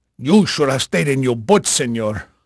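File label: Spanish male, voice; Pirates Of The Caribbean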